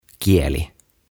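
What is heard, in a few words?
"Kieli" Finnish; Tongue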